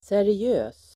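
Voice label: "seriös", Swedish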